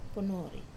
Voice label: "ponori", egg, Wamesa